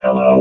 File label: "hello", robot